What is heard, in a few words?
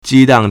"ji1 dang4", Chinese